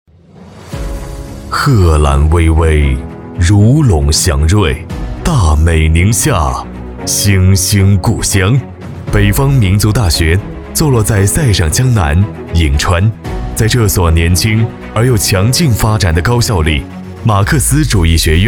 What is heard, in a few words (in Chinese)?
男 大气宣传; 宁夏北方民族大学